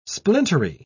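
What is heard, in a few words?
"splintery", British English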